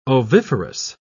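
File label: "oviferous", English, British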